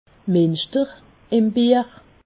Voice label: "minschter", Alsace

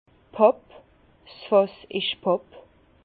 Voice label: "papp", Bas Rhin; étanche

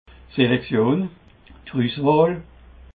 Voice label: "selection", alsace

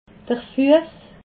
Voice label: "fuess", alsace

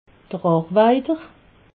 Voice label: "arweiter", alsace

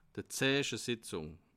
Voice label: "zeichesetzung", alsace

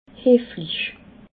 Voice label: "heflich", alsace

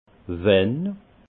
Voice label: "wenn", alsace; vin; quand

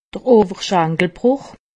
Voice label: "femur", alsace; medecine

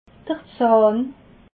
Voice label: "zahn", alsace

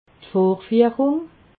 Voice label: "vorfiehrung", alsace

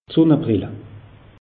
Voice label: "sunnebrille", alsace; meteo

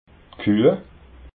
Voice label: "kueh", alsace

